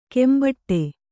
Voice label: "ಕೆಂಬಟ್ಟೆ", Kannada

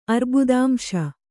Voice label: "ಅರ್ಬುದಾಂಶ", Kannada

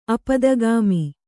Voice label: "ಆಪ್ತಾಗಮ", Kannada

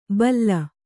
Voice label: "ಬಲ್ಲ", Kannada

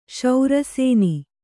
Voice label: "ಶರಸೋನೆ", Kannada

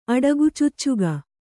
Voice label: "ಅಡಗುಚುಚ್ಚುಗ", kannada

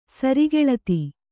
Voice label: "ಸರಿಗೆಳತಿ", Kannada

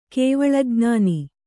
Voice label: "ಕೇವಲಜ್ಞಾನ", Kannada

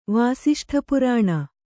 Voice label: "ವಾಸಿಷ್ಠಪುರಾಣ", Kannada

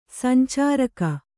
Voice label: "ಸಂಚಾರಕ", kannada

